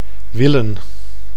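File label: "willen", Dutch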